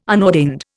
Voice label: "unordained", Indian